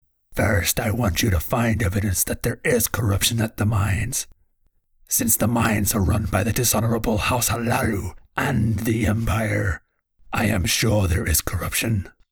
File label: dark elf, dark elf voice auditions